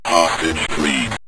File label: hostage Freed, Synth Voice; Kenney's Sound Pack